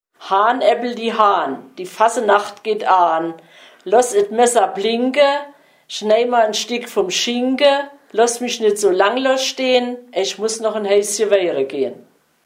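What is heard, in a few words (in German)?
kinderreim hahn aebbelche hahn, O-Ton Hunsrück; Unzenberg; Kinderreim: Hahn Äbbelche Hahn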